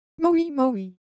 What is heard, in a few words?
Korean, Ideo Phones; Shape